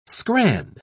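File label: "scran", British English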